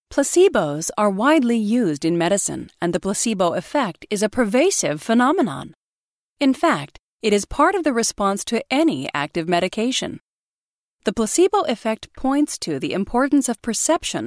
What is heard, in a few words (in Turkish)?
Amerikan Ingilizcesi Kadin; Amerikan İngilizcesi Seslendirme